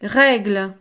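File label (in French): "regle", French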